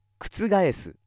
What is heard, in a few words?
male